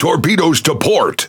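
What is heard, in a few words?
Pirate Speech Alarms Torpedo Left, English pirate; World Of Warships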